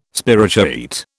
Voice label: "spirochaete", British

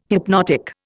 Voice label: "hypnotic", Indian

